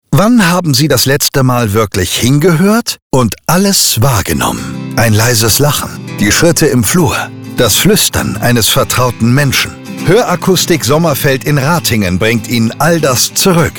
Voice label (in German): Beispielspot Hoerakustik